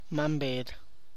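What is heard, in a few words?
roadrunner, Tlacochahuaya Zapotec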